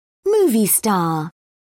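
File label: "movie star", British English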